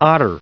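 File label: "otter", US English, recite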